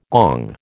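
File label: "gong", American English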